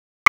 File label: Raleigh Police Northeast District Tac, p25